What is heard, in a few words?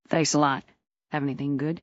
fem